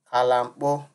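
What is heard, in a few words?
"kalampo", Nigerian Pidgin, male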